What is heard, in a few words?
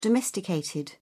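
"domesticated", British English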